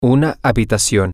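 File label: a Room, Spanish, male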